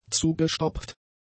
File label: "zugestopft", German; adjectives